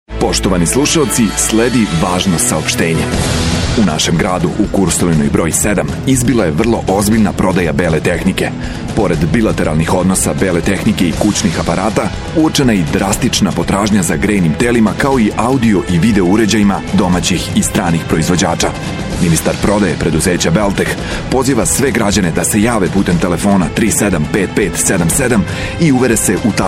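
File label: serbian male commercial voice; voice demos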